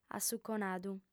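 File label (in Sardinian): "assuconadu", female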